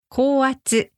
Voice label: "kouatsu", Japanese; high voltage